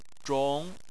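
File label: "zhong", Mandarin Chinese, second tone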